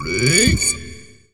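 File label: effects talk, Funky Vocals; USB Soundscan vol.18; AKAI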